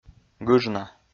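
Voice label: "гыжна", Komi-Zyrian, male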